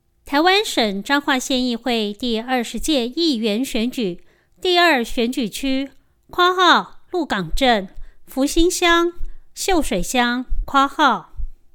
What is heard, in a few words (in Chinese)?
前言 女, 國語; 彰化縣; 縣議員; 第二選區; 有聲公報